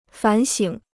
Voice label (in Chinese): "反省", Chinese